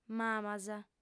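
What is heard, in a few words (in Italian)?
"mamas", lingua sarda, fem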